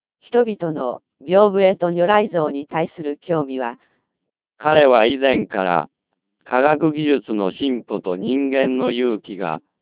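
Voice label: speech CODEC2 2400 bps, Japanese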